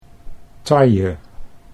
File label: "taille", Dutch